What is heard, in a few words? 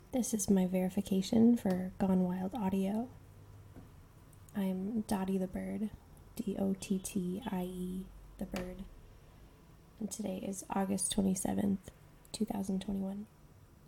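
Female verification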